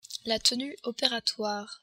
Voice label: "tenue", French

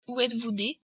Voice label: "nee", French

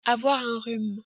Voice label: "rhume", french

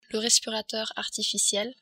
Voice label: "respirateur", french

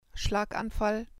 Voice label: "schlaganfall", german

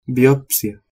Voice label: "biopsia", spanish

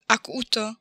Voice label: "acuto", Italian

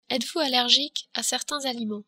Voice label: "allergique aliment", French

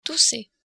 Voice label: "toussez", French

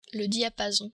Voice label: "diapason", french

